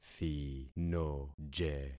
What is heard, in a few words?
"thinoje", concat syllables; Affixing experiment